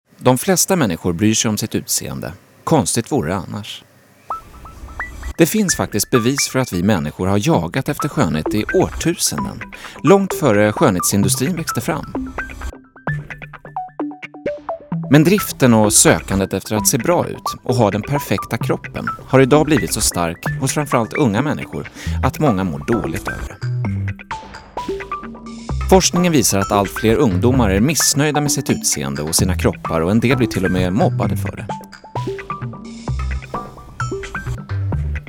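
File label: Swedish informative, male; svensk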